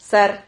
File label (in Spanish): "sar", Silabas